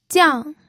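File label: "jiang4", Mandarin Chinese fourth tone; pinyin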